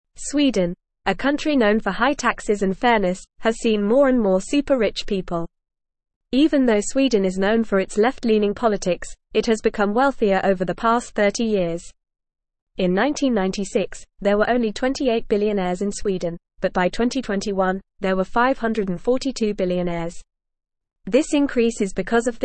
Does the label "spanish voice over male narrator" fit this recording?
No